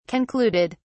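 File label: "concluded", British English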